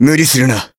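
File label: Voice heal, Japanese; jade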